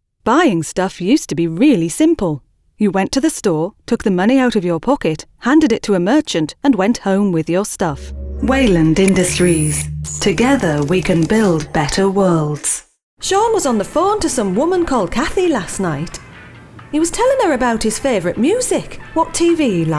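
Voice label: UK English, Werbung Fremdtake Variabel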